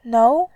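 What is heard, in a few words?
"nou", Mandarin, tone 2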